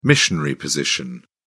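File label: "missionary position", British English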